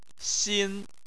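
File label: "xin", Chinese, first tone; Chinese Surnames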